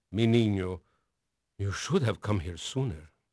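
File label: Spanish male, voice; Pirates Of The Caribbean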